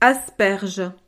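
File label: "asperge", French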